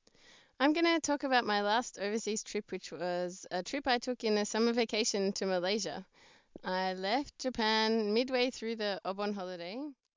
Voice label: eng